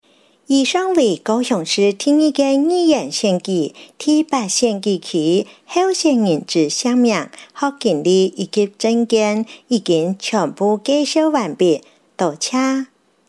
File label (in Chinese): 客語; 高雄市; 高雄市市長議員有聲選舉公報; 第八選區